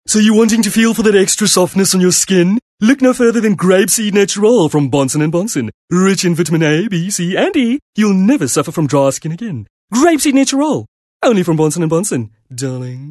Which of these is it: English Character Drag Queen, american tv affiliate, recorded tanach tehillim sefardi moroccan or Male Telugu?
English Character Drag Queen